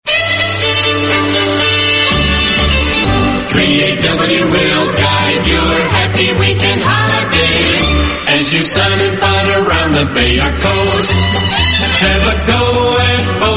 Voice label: australian otr promos and idents; 3aw weekend holiday

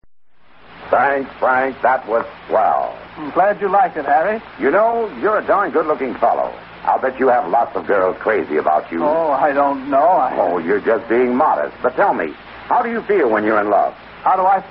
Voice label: vintage commercials; dodge

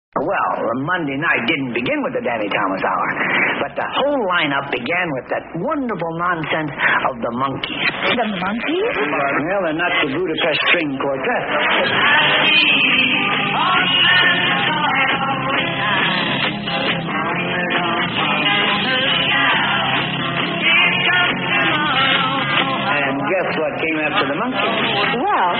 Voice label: tv promo; psychedelic promos and radio spots

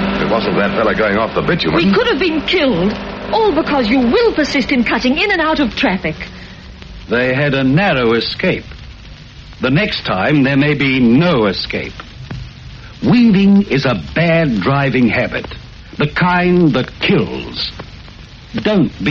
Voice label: australian road safety council ads; australian otr ads

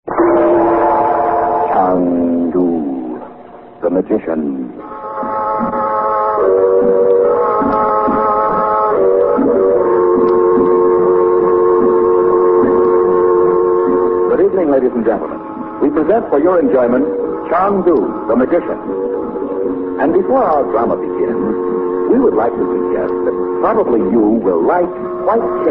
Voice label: vintage commercials soap; white king

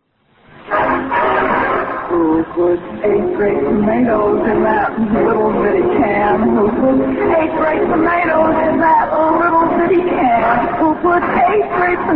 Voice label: vintage commercials tomato paste; contadina

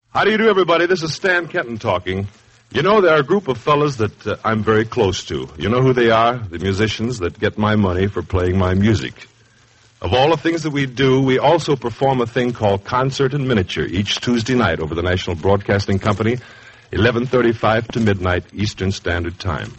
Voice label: promos for concert in miniature

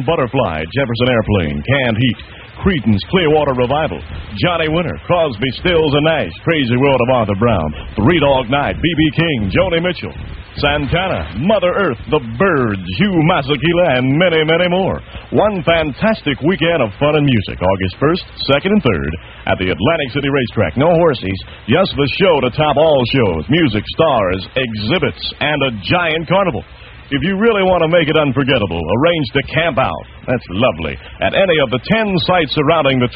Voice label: pop festival promo; atlantic city; psychedelic promos and radio spots

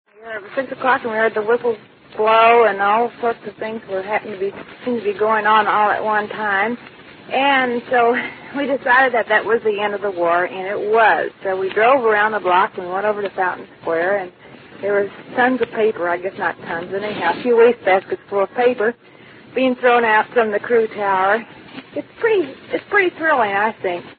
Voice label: radio news woman describes vj day announcement

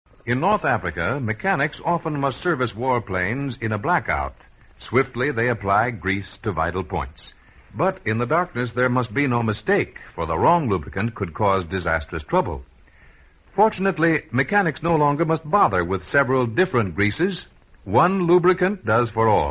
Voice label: commercials; mobil grease in north africa

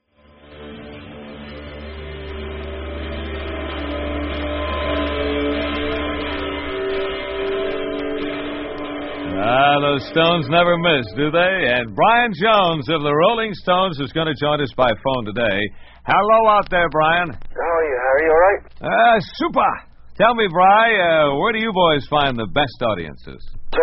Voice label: interview; in sound; brian jones; psychedelic promos and radio spots

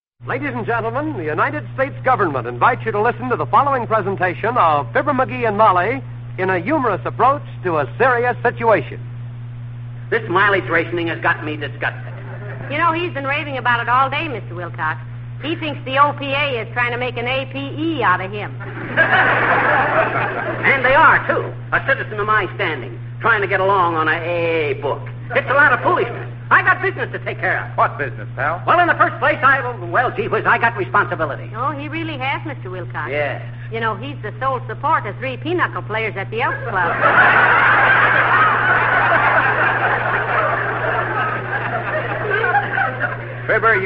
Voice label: commercials; fibber mcgee and molly mileage rationing

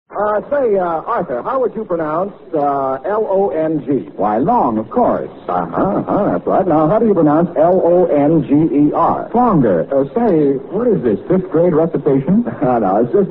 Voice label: vintage commercials cigarettes; wing

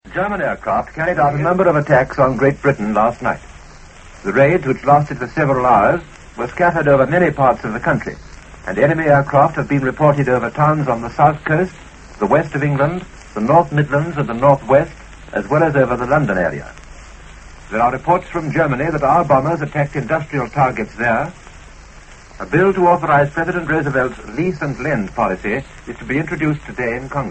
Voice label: news on german air attacks; ww2 news; 1941

